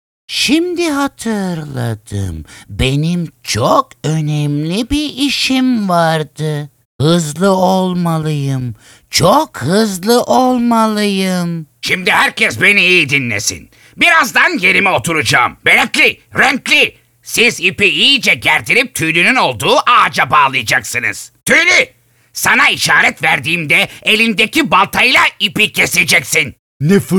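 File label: animation, seslendirme; Animasyon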